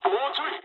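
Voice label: near waypoint mood high, speech japanese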